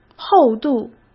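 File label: "厚度", Mandarin Chinese; hsk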